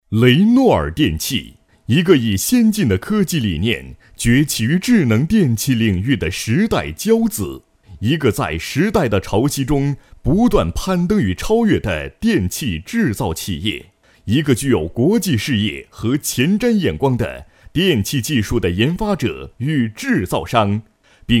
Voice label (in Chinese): 男80 企业; 上海雷诺尔; 央视农广